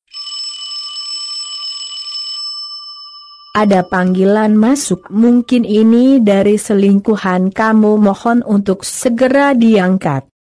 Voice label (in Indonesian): suara google